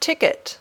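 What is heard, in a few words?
"ticket", English; Transportation (Transporte)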